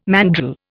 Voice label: "mandrel", Indian

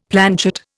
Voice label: "planchet", Indian; Dictionary

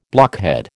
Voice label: "blockhead", American

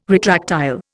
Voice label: "retractile", Indian

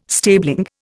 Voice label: "stabling", Indian

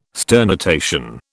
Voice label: "sternutation", British

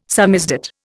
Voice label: "samizdat", Indian

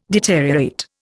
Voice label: "deteriorate", Indian

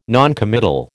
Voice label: "noncommittal", American

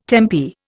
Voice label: "tempi", Indian

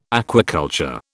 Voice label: "aquaculture", British

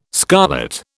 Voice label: "scarlet", British

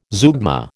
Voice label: "zeugma", American

